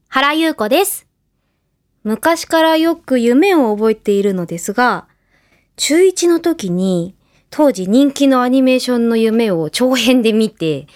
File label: female voice freetalk